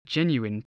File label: "genuine", voice